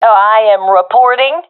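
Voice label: reporting in, professional female; vo; agents; Source Model Packs; Counter Strike Model Pack; CS2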